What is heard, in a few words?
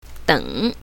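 "deng3", pinyin, south, female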